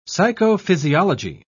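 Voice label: "psychophysiology", British English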